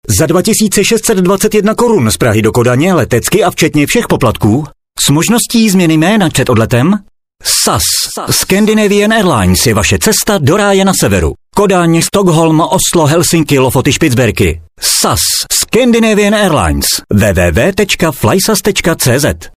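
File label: czech male commercial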